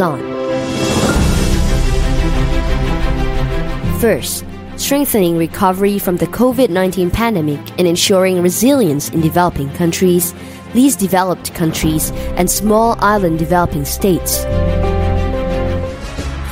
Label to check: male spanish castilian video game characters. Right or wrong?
Wrong